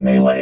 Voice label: "melee", robot

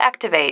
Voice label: "activate", female

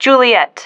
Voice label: "juliet", female

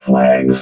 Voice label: "flags", robot vox

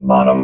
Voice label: "bottom", robot vox

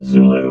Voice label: "zulu", robot

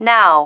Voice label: "now", female

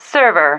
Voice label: "server", female

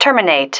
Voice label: "terminate", female

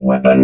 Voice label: "weapon", robot

